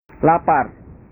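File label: "lapar", Indonesian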